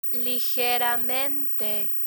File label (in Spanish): "ligeramente", Spanish